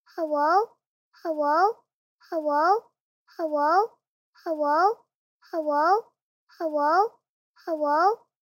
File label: Cute kid hello